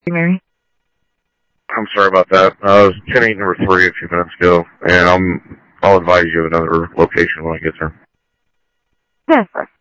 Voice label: Sheriff Central, scanner audio trunk; MCSO Central Sheriff-Central; ThompsonPeakScot